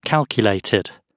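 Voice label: "calculated", British English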